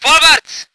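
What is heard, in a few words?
voice german vehicle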